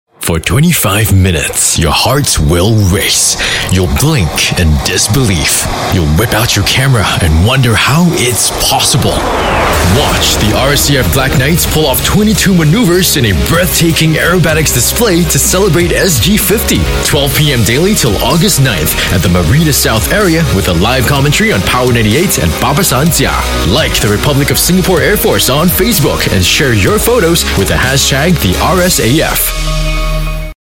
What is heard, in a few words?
Asian English Commercial; RSAF Black Knights